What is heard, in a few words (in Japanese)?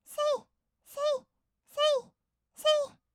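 "せいせい", Japanese, kawaii voice; 合いの手; 1200 kawaii voice!!; ASMR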